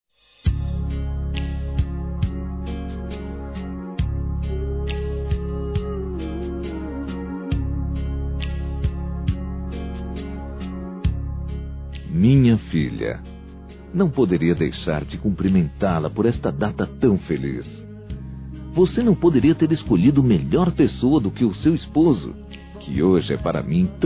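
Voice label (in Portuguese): Masculino, Telemensagens Aniversários; CASAM.FILHA; Telemensagens 2017; Aniv Familiar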